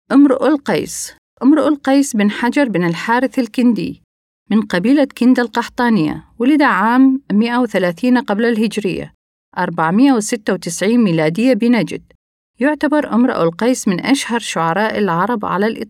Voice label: arabic f narration

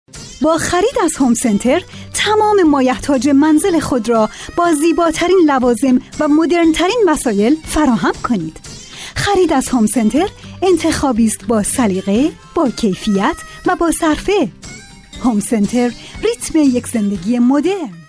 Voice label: farsi f commercial; voice demos

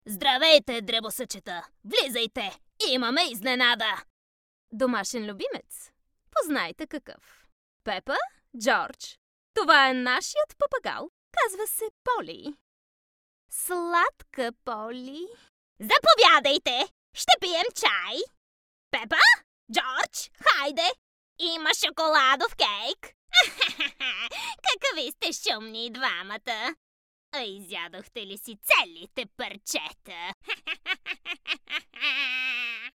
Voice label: bulgarian f character; voice demos

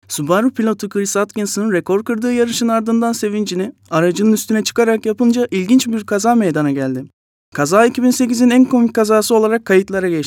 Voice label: turkish m narration

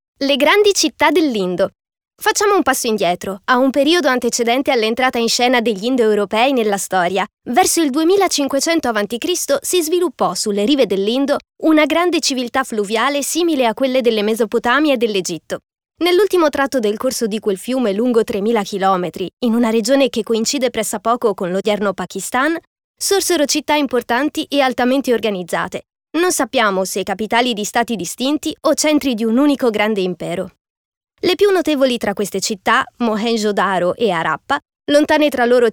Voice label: italian f character; voice demos

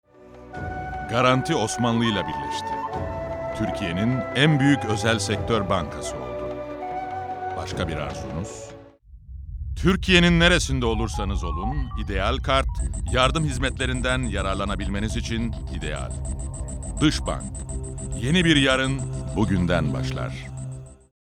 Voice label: turkish m commercial; voice demos

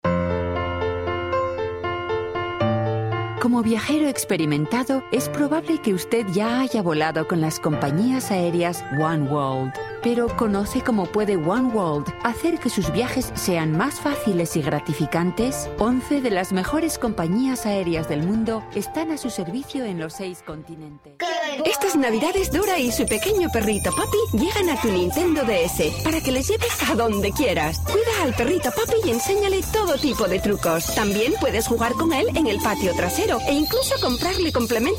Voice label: spanish f commercial; voice demos

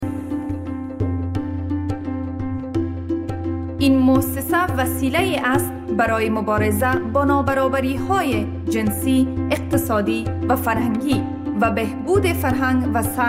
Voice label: farsi f narration; voice demos

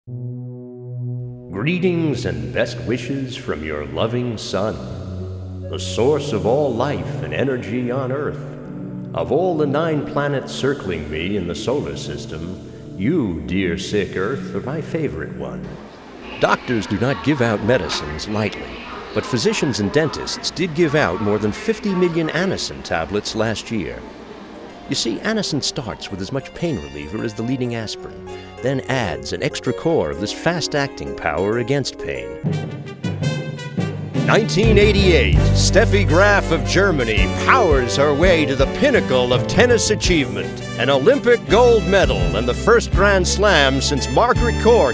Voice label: english us m narration, voice demos

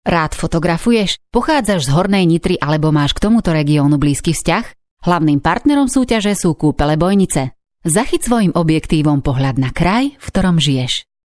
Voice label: slovak f narration; voice demos

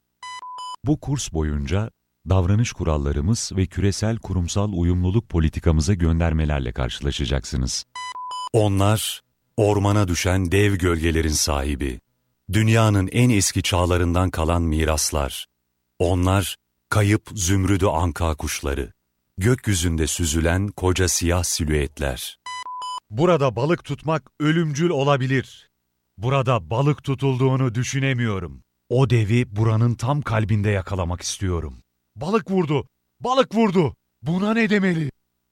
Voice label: turkish m narration, Turkish voice

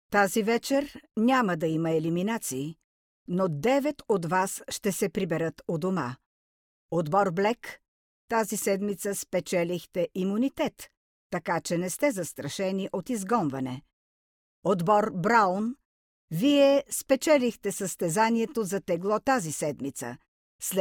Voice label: bulgarian f narration; voice demos

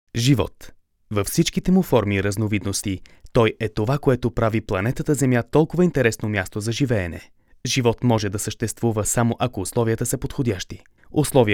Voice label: bulgarian m character; voice demos

